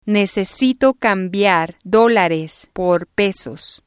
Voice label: "necesito cambiar pesos", spanish